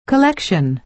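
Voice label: "collection", American English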